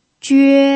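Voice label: "jue" first tone, pinyin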